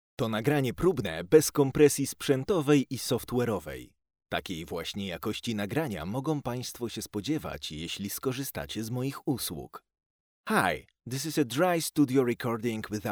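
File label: DRY voiceover Polish; PL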